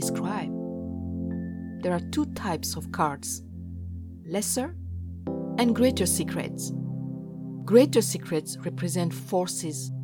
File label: Tarot Tutorial Elearning Educational, Believable